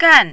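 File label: "gan", Mandarin, tone 4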